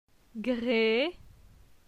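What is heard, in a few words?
"Gre", French; phonetique; la syllabe e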